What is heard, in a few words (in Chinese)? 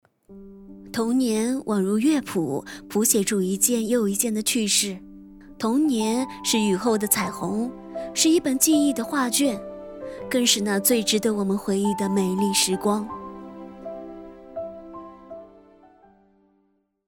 情感旁白